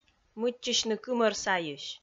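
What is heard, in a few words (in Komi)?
"мыччысьны кымӧр сайысь", Komi, female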